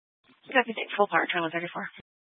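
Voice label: Incident Tactical 1, Scanner audio recording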